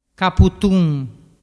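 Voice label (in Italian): "caputun", dialect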